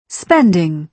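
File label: "spending", British English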